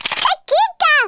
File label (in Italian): "Collect", Italian, Speech; Worms Armageddon